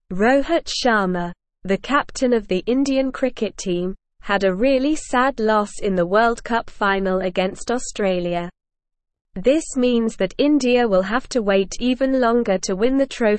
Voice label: English Upper Intermediate SLOW Reading; Rohit Sharma The Unsung Hero of Indian Cricket; English Newsroom